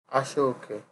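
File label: "ashooke", Nigerian Pidgin, male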